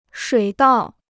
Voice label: "水稻", Chinese

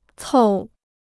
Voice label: "凑", Chinese

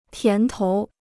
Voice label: "甜头", Chinese

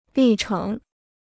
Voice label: "历程", Chinese

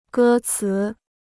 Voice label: "歌词", Chinese

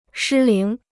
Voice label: "失灵", Chinese

